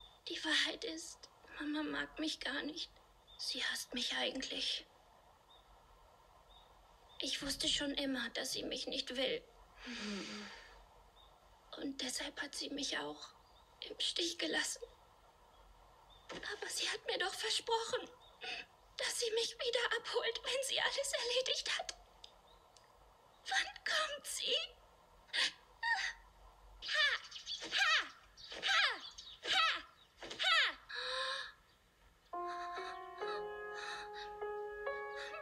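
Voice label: Little, Lip-Sync (Synchron), Children's Voice (Kinderstimme), German; "Tokyo Revengers" - Serie | Episodenrolle: Junge Emma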